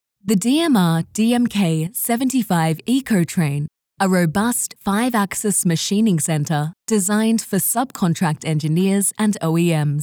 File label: Equipment Explainer